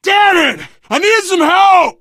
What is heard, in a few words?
help, player survivor voice biker